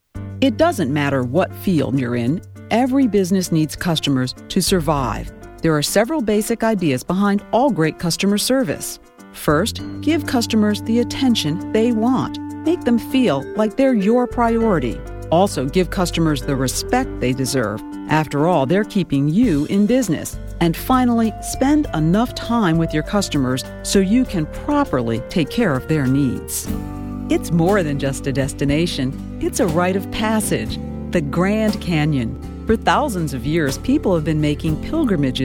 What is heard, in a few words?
Narration